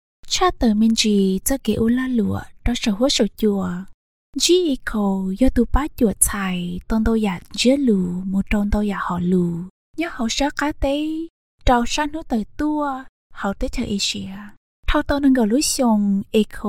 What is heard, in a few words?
Hmong